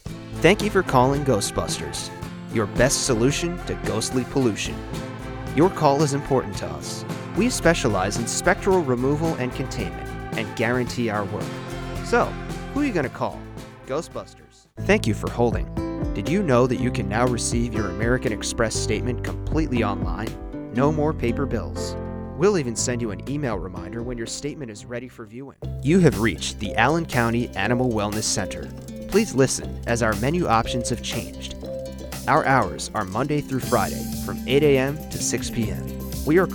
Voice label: Telephony